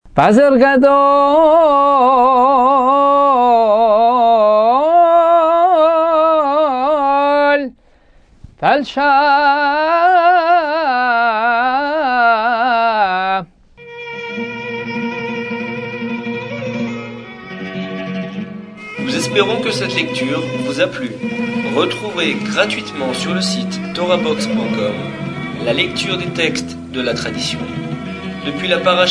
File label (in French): apprentissage taamim selon le rite constantinois